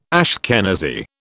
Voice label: "Ashkenazi", British